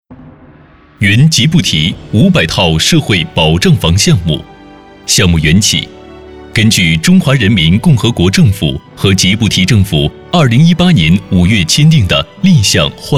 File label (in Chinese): 男 宣传; 援吉布提